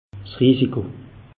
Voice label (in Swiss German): "risiko", alsace; meteo